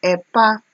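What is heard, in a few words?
"epaa", Nigerian Pidgin, female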